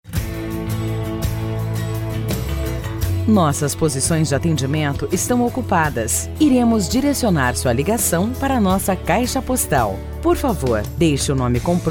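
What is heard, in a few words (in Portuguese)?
Gravação de Ura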